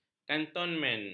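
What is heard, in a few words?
"kantonmen", Malay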